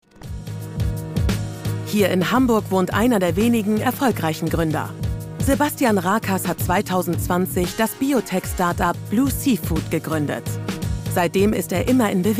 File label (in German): Doku; ZDF WISO